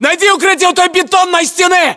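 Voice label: order cover wall cinderblock, battlechatter, Russian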